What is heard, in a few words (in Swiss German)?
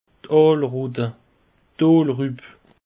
"aalrutte", alsace; peche